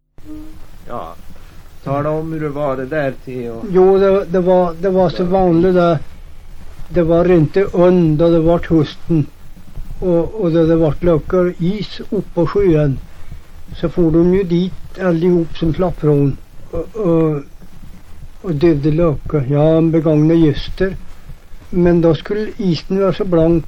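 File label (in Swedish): Hede Härjedalen, dialekter, Svenska sagor; Folkminnen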